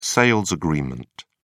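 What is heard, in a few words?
"sales agreement", British English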